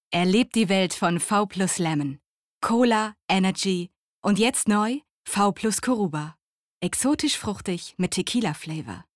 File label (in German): Werbung